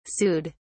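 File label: "sued", British English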